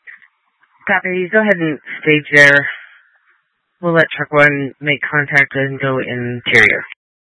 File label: Tactical Incidents, scanner